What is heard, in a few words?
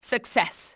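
"success", enemy, english, female; XBOX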